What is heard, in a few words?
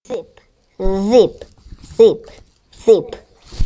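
"zip", spoken word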